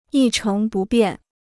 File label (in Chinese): "一成不变", Chinese